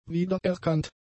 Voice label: "wiedererkannt", German; adjectives

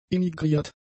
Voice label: "emigriert", german; adjectives

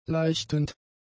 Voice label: "leichtend", German; adjectives

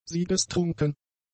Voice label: "siegestrunken", German; adjectives

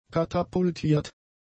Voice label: "katapultiert", German; adjectives

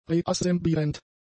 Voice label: "reassemblierend", German; adjectives

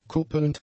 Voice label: "kuppelnd", German; adjectives

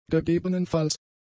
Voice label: "gegebenenfalls", german; adjectives

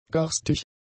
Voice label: "garstig", German; adjectives

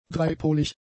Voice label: "dreipolig", German; adjectives

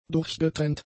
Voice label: "durchgetrennt", German; adjectives

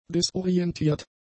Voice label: "disorientiert", German; adjectives

